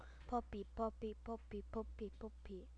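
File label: "puppy", spoken word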